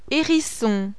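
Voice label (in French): "hérisson", French; MAMMIFÈRE; animal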